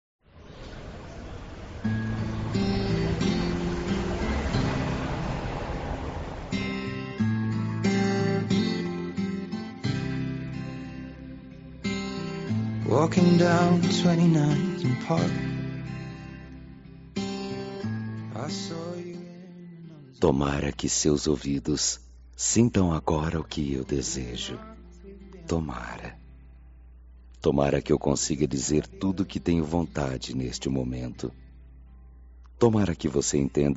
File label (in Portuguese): Voz Masculina; Telemensagem de Conquista